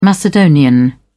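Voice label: "macedonian", British English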